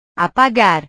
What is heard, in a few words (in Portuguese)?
"apagar", Portuguese